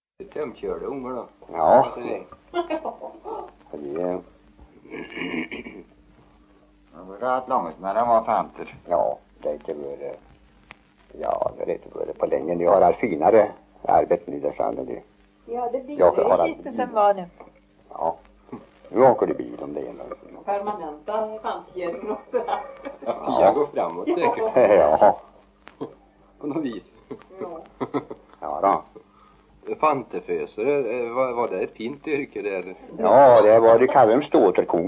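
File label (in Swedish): Järnskog Värmland, dialekter; Folkminnen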